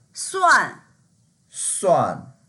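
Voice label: "suan4", Mandarin Chinese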